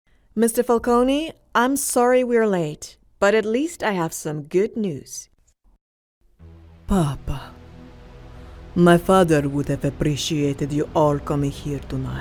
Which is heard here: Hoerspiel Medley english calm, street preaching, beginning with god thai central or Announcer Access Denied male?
Hoerspiel Medley english calm